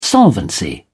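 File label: "solvency", English, British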